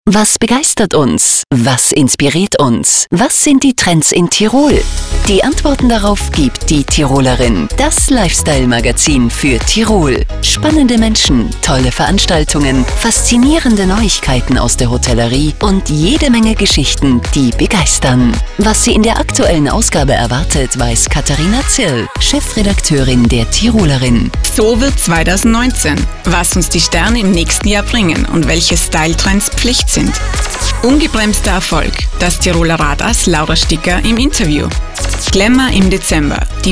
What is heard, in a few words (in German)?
Radiospot; Serie Tirolerin; zu den Erscheinungsterminen